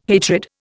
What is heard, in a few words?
"hatred", Indian